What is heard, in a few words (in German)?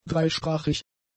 "dreisprachig", German; adjectives